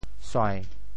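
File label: "suai~7", Teochew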